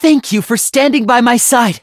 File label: level up, en; Cookie Run